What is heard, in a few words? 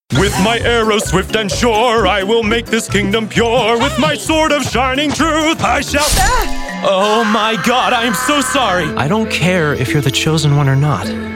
english american animation